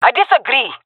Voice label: disagree, jungle fem; vo; agents; Source Model Packs; Counter Strike Model Pack; CS2; jungle fem epic

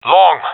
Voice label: loc long, professional epic, agents; vo; Source Model Packs; Counter Strike Model Pack; CS2

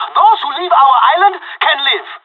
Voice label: on a roll brag, pirate; Counter Strike Model Pack; CS:GO

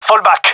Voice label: request fallback, gendarmerie male; vo

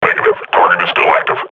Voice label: combat idle, grunt

